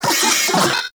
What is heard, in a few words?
Vocal Pain; Warframe; Corpus; Arisoctrats; Mini Moa